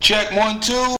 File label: check one two male; Voice; numbers and letters